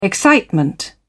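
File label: "excitement", British English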